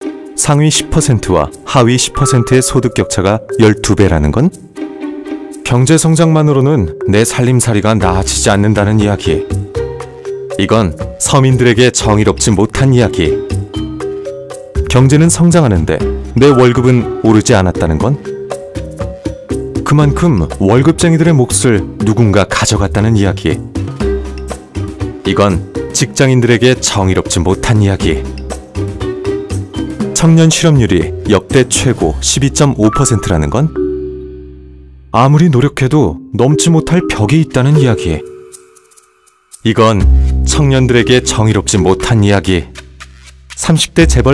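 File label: Korean, male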